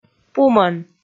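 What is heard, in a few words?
"пумӧн", Komi, female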